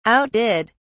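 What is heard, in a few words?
"outdid", British English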